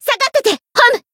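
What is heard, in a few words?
encounter fear, Japanese; alma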